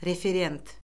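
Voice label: "referent", Azerbaijani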